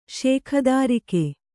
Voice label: "ಶೇಖದಾರಿಕೆ", kannada

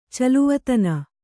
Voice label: "ಚೆಲ್ವತನ", kannada

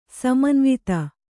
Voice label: "ಸಮನ್ವಿತ", kannada

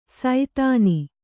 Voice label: "ಸೈತಾನಿ", Kannada

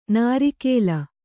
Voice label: "ನಾರೀಕೇಲ", Kannada

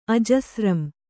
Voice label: "ಆಜಸ್ರಂ", Kannada

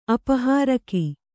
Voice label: "ಅಪಹಾರಕ", Kannada

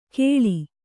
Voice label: "ಕೇಳಿ", Kannada

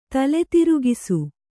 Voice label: "ತಲೆತಿರುಗಿಸು", Kannada

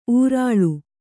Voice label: "ಊರಾಳು", kannada

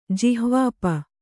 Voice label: "ಜಿಹ್ವಾಪ", Kannada

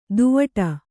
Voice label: "ದೂವ್ವಾಡ", Kannada

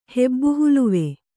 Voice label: "ಹೆಬ್ಬುಹುಲುವೆ", Kannada

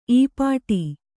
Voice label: "ಈಪಾಟಿ", Kannada

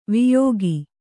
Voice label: "ವಿಯೋಗ", Kannada

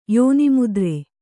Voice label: "ಯೋನಿಮುದ್ರೆ", Kannada

